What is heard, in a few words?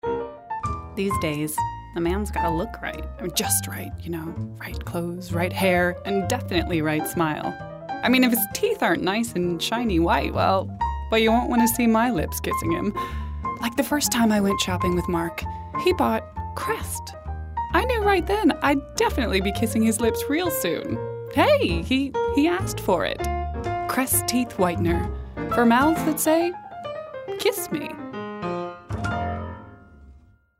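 narration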